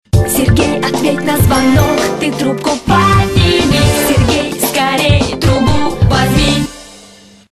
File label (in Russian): muzhskie imennye zvonki